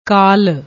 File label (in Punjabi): "kaal", Punjabi; ਕਾਲ